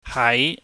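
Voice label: "hai", Cantonese, mid rising tone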